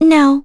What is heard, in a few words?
Vox Deny, en; kingsraid; voices heroes